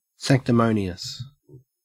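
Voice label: "sanctimonious", Australian English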